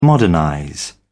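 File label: "modernize", British English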